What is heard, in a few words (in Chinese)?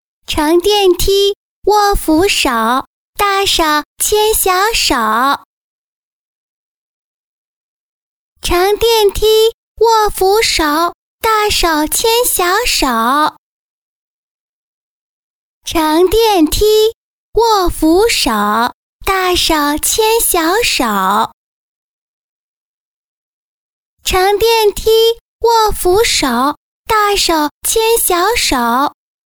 动画 童声 乘电梯